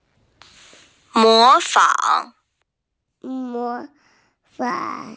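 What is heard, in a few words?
"mó fǎng", Mandarin Chinese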